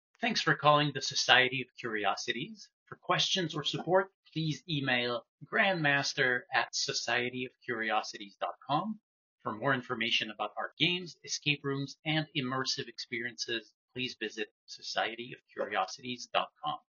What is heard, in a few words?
voicemail